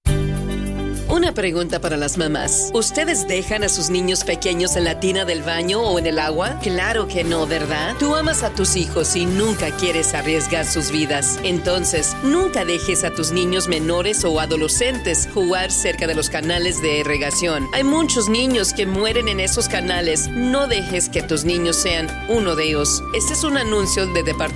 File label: Spanish